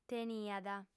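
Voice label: "teniat", lingua sarda, female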